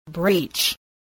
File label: "breach", spelling